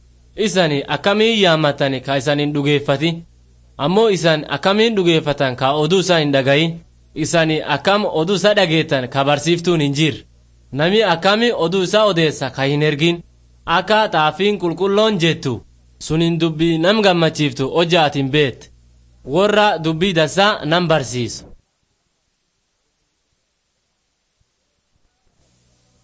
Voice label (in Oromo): borana gabbra; words of life